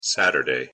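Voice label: "Saturday", English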